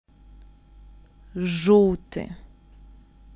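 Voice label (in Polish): "żółty", Polish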